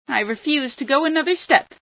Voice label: stop, female sci

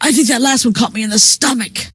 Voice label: im hit, scientist female

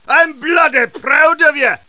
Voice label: cheer; tf2demoman

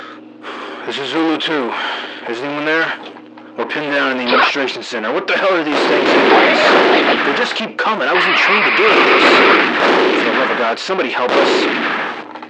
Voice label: radio conversation

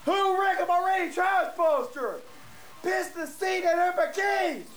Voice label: Chat

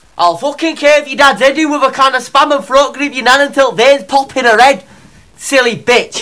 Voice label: british

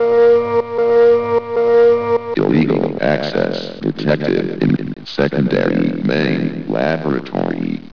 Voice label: announcement; sven; sector19

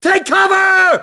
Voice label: cover, grunt; nimble grunt

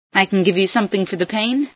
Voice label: heal, female

